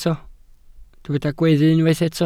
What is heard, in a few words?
"gweddu", Welsh